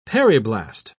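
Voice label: "periblast", British English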